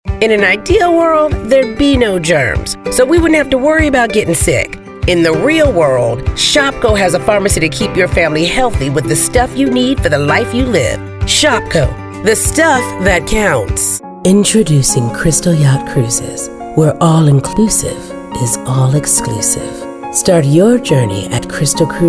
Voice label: Voiceover